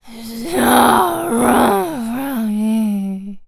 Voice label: Zombies Waitress Taunt; zps